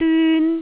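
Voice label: "dyun1", Cantonese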